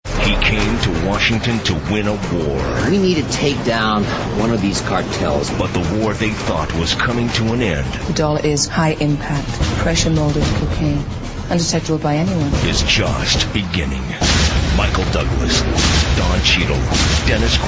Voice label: Male, promos